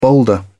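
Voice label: "boulder", British English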